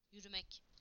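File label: "to walk", Turkish; PictureDictionary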